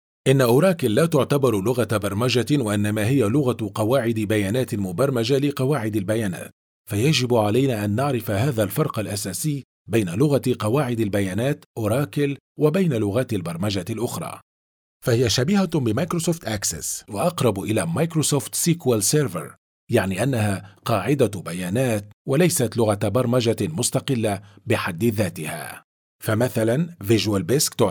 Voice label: arabic male, e-learning

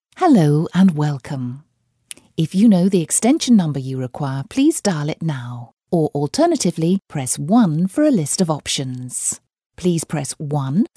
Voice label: telephone ivr

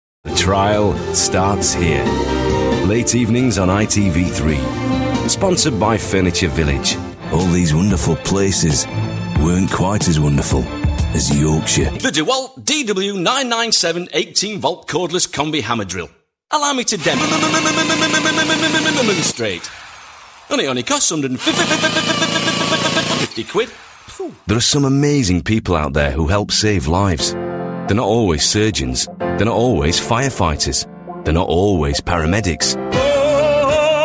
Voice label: commercials

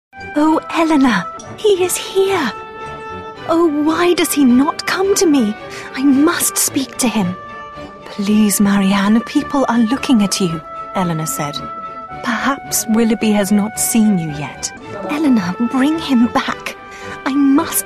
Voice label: audiobooks

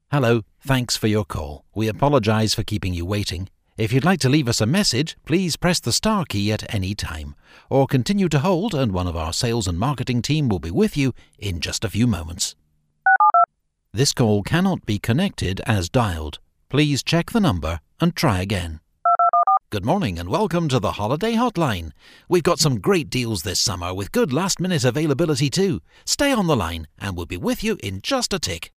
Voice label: phone prompts

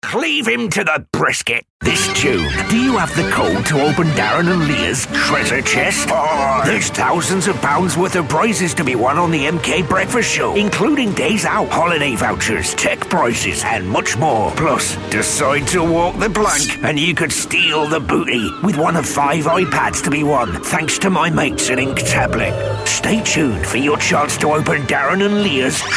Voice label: pirate

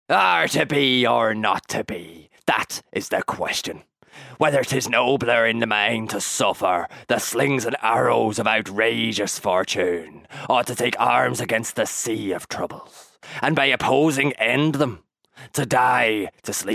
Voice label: pirate

